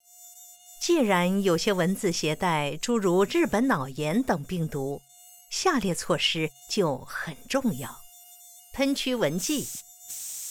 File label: radio mandarin; mosquito-borne